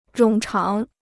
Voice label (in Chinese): "冗长", Chinese